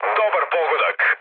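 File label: Serbian player killed enemy mood med, speech; War Thunder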